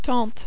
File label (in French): "tante", French